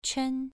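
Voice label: "quan", tone 2, pronunciation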